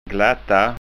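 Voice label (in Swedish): "glatta", Scanian Swedish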